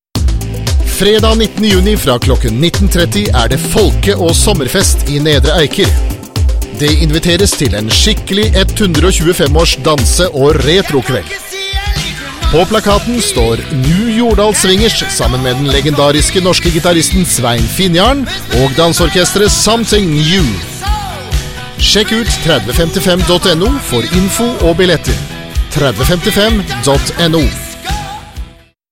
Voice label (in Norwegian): radiospot